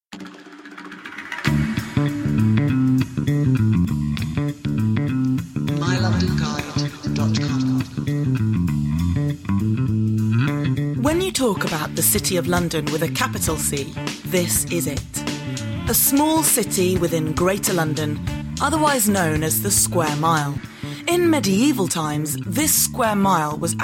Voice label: voice over; demo